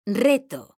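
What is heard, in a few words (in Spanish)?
"RETO", Spanish; mekanta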